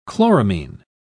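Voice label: "chloramine", English, British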